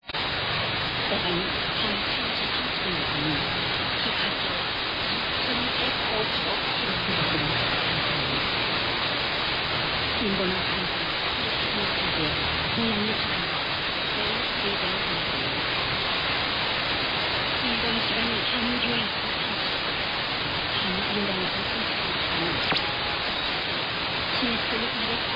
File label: 9.965MHz Korean; WHR; T8WHPalau